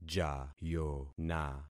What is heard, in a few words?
"jayona", concat syllables; Affixing experiment